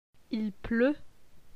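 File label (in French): "Il pleut", French; vocabulaire; le lexique du temps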